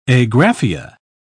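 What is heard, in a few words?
"agraphia", British English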